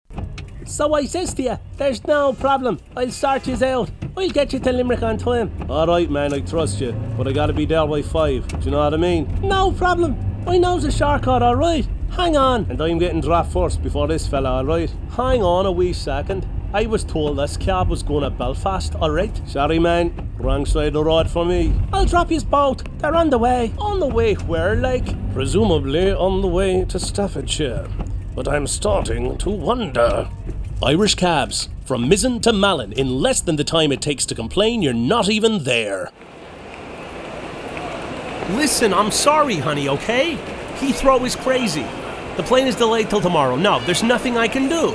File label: Voiceover